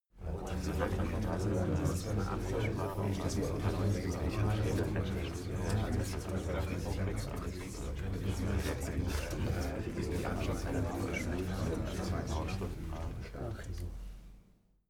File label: talk german neutral quiet short